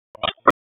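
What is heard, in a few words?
Dispatch, p25; WSO DISP 3; wake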